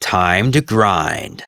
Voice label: Game Start Arcade Machine; Warframe; 1999; Ollies Crash Course